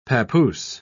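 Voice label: "pappoose", British English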